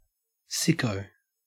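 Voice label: "sicko", Australian English; pronunciations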